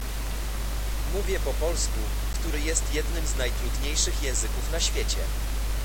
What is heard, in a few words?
polish